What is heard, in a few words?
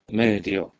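"medhio", Proto-Indo-European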